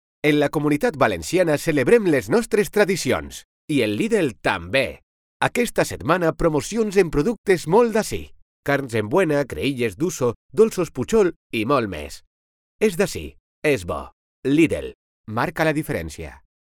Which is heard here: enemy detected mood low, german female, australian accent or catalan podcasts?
catalan podcasts